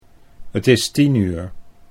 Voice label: "het is 10 uur", Dutch

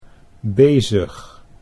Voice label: "bezig", Dutch; 'busy (with)'

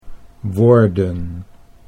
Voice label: "woorden", Dutch

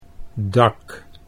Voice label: "dak", Dutch

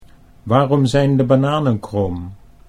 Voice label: "waarom zijn de bananen krom", Dutch

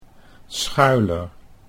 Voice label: "Schuyler" Dutch

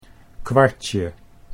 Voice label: "kwartje", Dutch

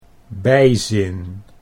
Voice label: "bijzin", Dutch

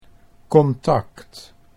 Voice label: "contact", Dutch

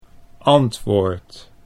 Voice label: "antwoord", Dutch

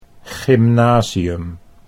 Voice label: "gymnasium", Dutch

